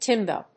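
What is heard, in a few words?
"timba", English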